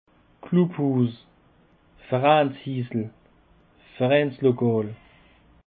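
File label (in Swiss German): "glubhouse", Alsatian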